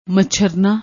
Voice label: "macharnna", Punjabi